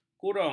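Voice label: "kurung", Malay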